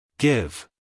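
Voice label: "give", American English male; everyone-can-use-english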